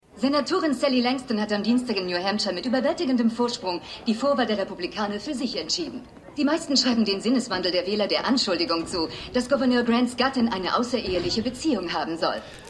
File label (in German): Nachrichtensprecherin